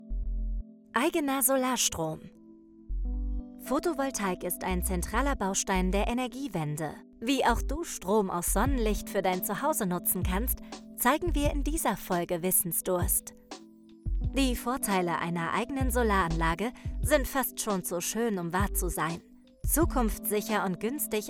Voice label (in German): Imagefilm; DSW21; Wissensdurst